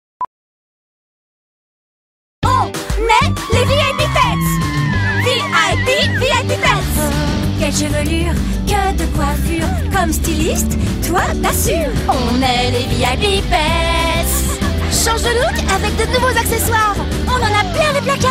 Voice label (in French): pub, voix off; Vip Pets